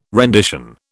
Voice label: "rendition", British